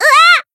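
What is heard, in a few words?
Voice damaged, Japanese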